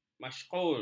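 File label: "masyghul", Malay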